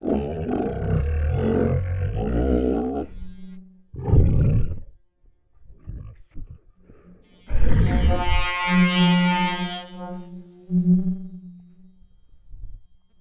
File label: Dybbuk Conversation, Vocals - Humanoid